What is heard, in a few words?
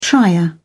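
"trier", British English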